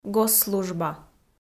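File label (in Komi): "госслужба", Komi, female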